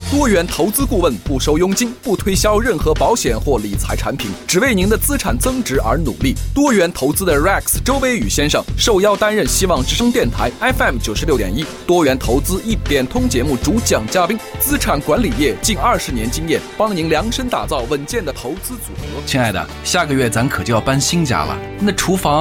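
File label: Chinese narration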